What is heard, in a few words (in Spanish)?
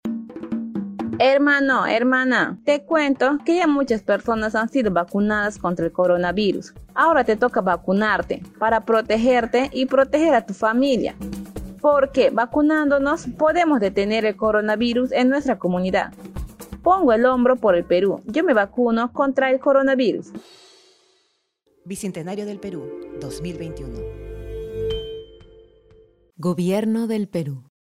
castellano vacunate para protegerte del coronavirus; localidades amazonicas; vacuna contra el coronavirus